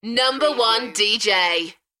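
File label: UK female, DJ vocals; beanstalk-audio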